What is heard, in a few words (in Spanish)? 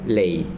"diente" Zapoteco